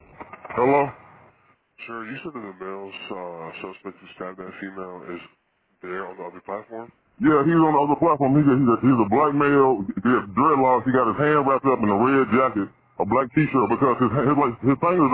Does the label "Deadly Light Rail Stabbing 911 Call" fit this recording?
Yes